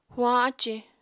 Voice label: "huañ ácì", apatani

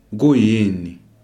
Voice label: "kuyini", Ndebele

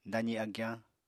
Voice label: "dazi agyañ", Apatani

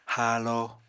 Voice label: "haalo", Apatani

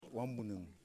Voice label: "Flour", Yimas

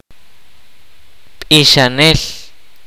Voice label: "singer at religious ceremony", kaqchikel

